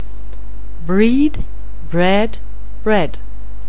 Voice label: "breed", anglais